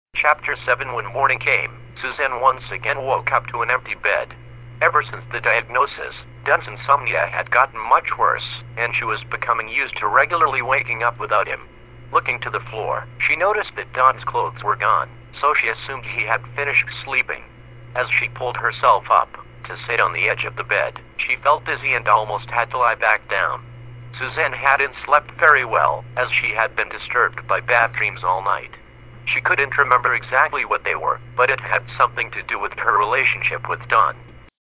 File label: amazon male